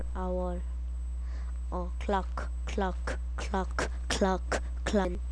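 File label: "clock", spoken word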